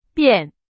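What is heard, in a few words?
Chinese; hsk2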